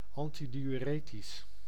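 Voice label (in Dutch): "antidiuretisch", Dutch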